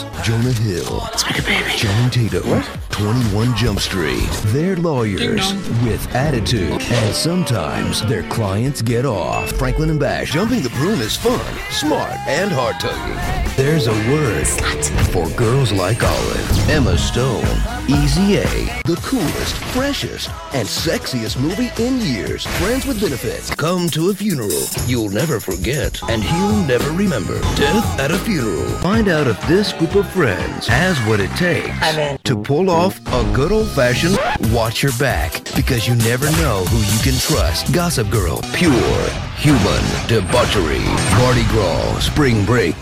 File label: Comedy Trailer